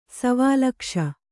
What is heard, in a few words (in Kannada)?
"ಸವಾಲಕ್ಷ", kannada